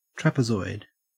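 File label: "trapezoid", Australian English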